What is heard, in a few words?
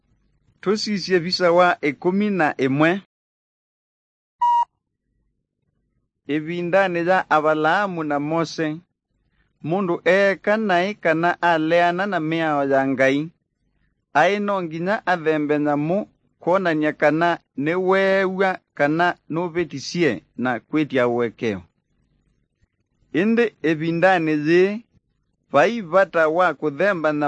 kikamba drama; good news; bible